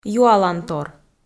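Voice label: "юалантор", Komi, female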